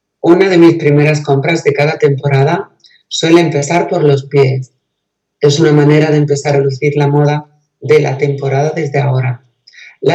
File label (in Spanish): Ejemplo VOZ anterior a la logopedia